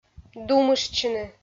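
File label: "думыштчыны", Komi, female; kpv audio dict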